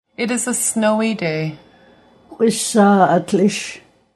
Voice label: It is a snowy day, Nuu-chah-nulth language phrases